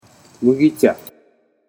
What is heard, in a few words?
"mugicha", Japanese